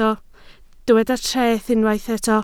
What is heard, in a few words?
"treth", Welsh